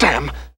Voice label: "damn", expletives; stewie

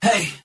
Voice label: "hey", Voice

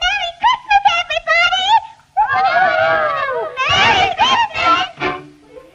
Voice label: merry christmas everybody girl

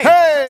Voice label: "hey", male

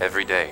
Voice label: every day announcer

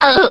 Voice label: uh, cartoon; ooh ahh; uh um

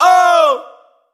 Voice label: "oh", Voice

